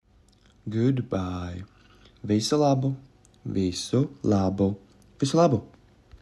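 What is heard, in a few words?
Good bye, Latvian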